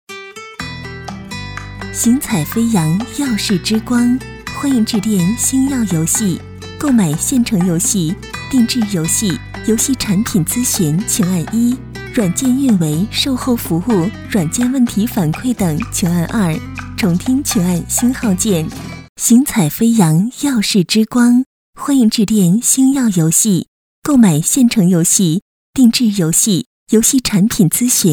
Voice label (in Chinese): 女 彩铃